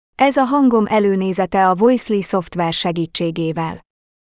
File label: Hungarian female